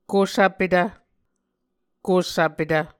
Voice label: "kusvpetv", Muscogee